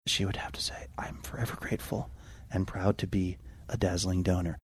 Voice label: quietly saying she would have to say I'm forever grateful